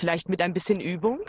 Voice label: german voice; BALLY; CORVETTE